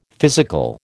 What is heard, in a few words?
"physical", American